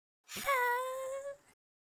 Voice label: sad, Nagging Wife

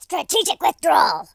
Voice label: "uh oh", War General; speech

